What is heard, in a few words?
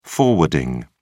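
"forwarding", English, British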